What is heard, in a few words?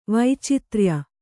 "ವೈಚಿತ್ರ್ಯ", Kannada